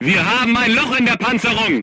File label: voice message player tank hit, crew tanks german